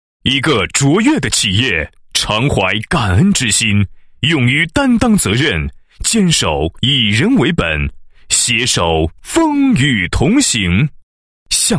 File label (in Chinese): 男 房地产专题 地产宣传简介; 宏图地产宣传简介